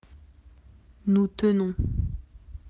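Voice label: "tenir", French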